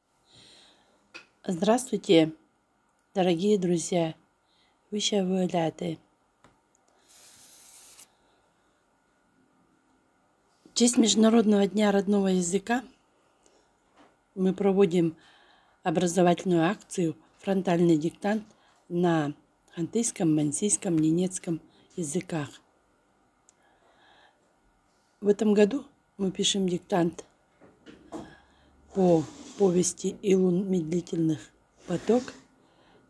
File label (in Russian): hantyyskiy yazyk shuryshkarskiy dialekt